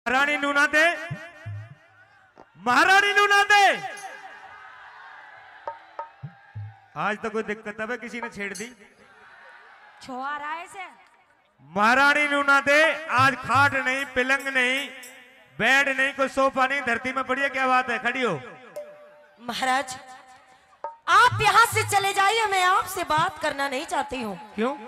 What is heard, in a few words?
hindi